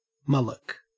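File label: "mullock", Australian English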